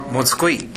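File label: "montukoe", Minamiyamagata dialect Japanese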